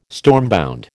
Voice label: "stormbound", American